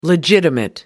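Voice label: "legitimate", English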